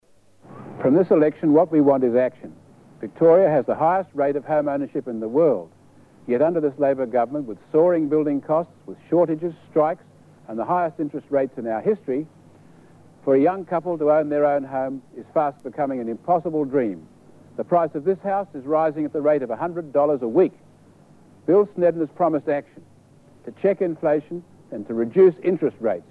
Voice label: liberal ad; election ads; 1974; rupert hamer